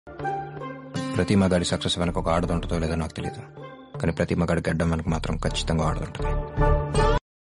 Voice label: Dialogue Telugu; Unnadi Okate Zindagi